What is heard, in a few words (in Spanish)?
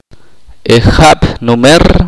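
"el año pasado", Ch'orti'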